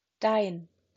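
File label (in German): "dein", German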